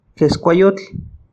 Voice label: "keskuayotl", Náhuatl; pierna; leg